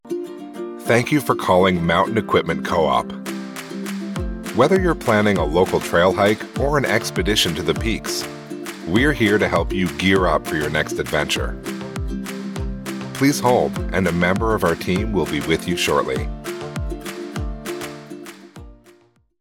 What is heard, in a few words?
IVR - Telephony - Approachable - Engaging - Warm - Inviting; MEC